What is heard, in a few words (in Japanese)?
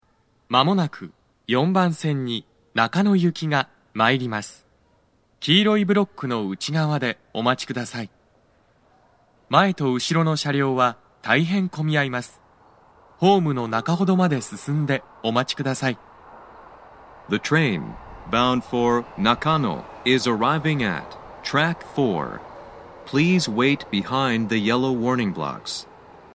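接近放送, 男声; 放送は「中野行 (混雑付帯)」です。; 大手町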